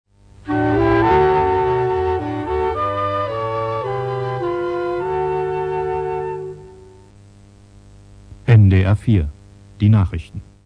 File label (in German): nachrichten, jingles